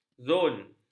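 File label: "zon", Malay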